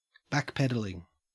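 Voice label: "backpedalling" Australian English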